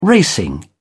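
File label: "racing", British English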